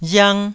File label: "riang1", taiwanese